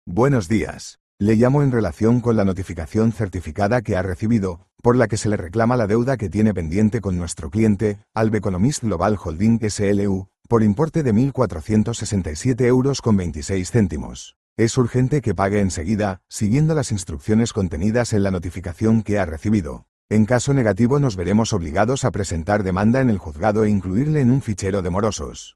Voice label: audio call